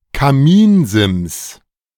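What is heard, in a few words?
"Kaminsims", German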